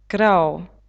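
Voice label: "grau", German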